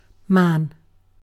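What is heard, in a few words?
"man", British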